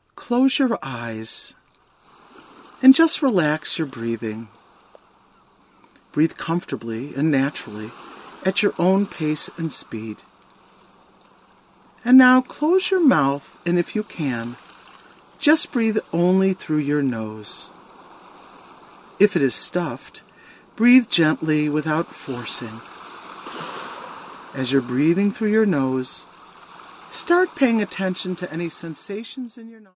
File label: expanding and contracting focus calm sea